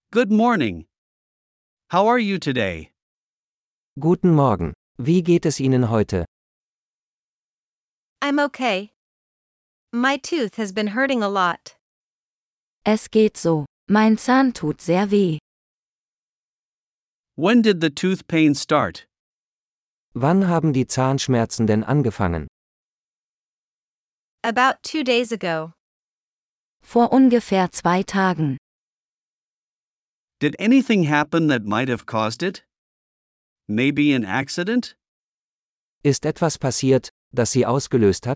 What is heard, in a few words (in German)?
Dialog mit Uebersetzungen; Der Zahnarztbesuch